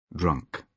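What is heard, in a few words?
"drunk", British English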